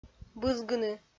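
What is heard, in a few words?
"бызгыны", Komi-Zyrian, female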